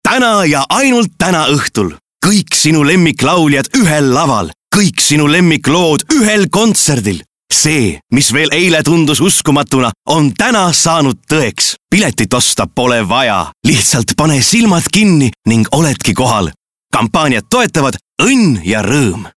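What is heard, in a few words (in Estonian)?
Voice Over men Estonian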